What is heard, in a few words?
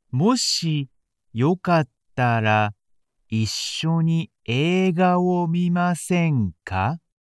beginner moshi yokattara slow, japanese